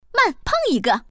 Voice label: peng, putonghua woman; mahjong paohuzi

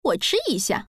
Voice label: "chi", woman; hunan

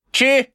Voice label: "chi", man

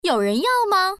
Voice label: chupai, putonghua woman; mahjong paohuzi

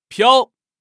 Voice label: "piao", man

